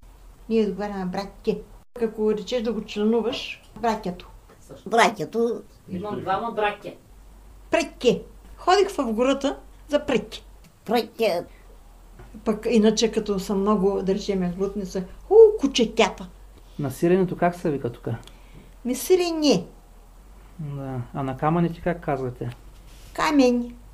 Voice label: stoilovo malkotarnovsko, bulgarian dialects